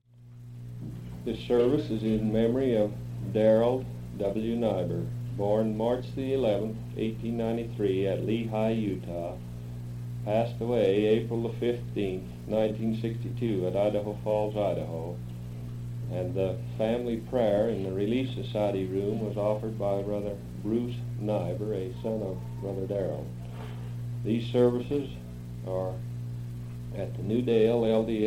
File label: Announcement